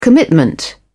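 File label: "commitment", English, British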